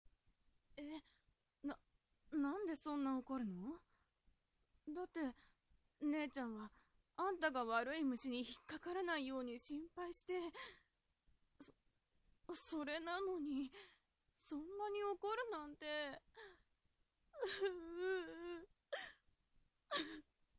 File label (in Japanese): josei